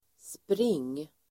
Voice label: "spring", Swedish

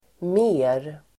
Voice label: "mer", Swedish

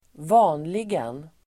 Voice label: "vanligen", Swedish

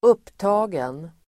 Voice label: "upptagen", swedish

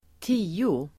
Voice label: "tio", Swedish

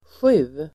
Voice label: "sju", swedish

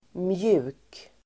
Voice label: "mjuk", Swedish

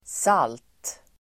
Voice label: "salt", Swedish

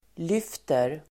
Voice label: "lyfter", Swedish

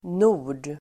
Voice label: "nord", Swedish

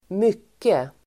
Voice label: "mycket", Swedish

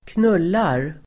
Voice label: "knullar", Swedish

